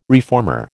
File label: "reformer", American